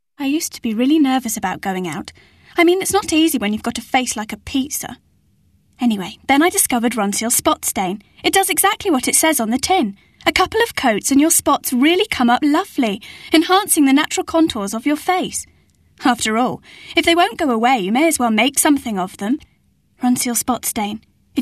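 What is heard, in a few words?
commercial, female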